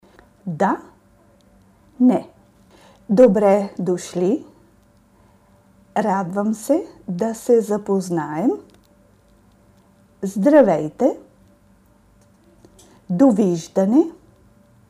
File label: Bulgarian